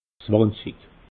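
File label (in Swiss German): "zwanzig", Alsatian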